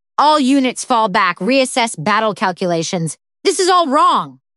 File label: upset; nadia; fertig classic games